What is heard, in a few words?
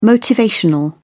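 "motivational", British English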